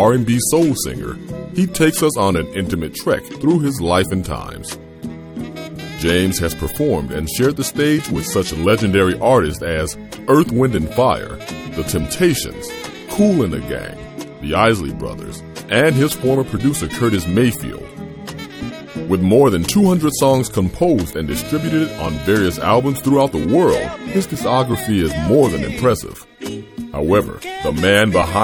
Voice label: Book Trailer; Music Saved My Life